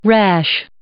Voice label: "rash", English